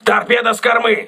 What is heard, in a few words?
torpedo rear, crew ships russian